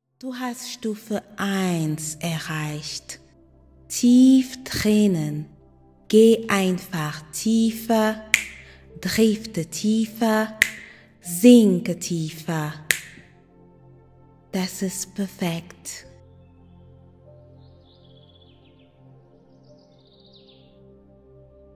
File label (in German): Heilende Selbstliebe Hypnose zur Heilung des Herzens